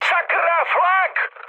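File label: damaged aa, Czech, mood high; War Thunder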